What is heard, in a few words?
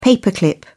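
"paper clip", British English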